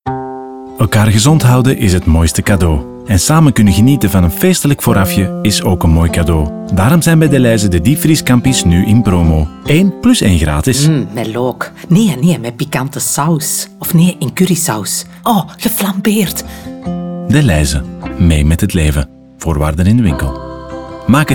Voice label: radio; scampi; e comm